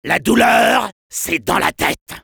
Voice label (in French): Soldier jeers, fr